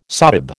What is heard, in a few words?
"sahib", American